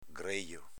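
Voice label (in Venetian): "grejo", Veneto dialect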